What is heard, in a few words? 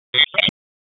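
Raleigh Police North District Tactical, p25; Wake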